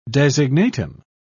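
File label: "designatum", British English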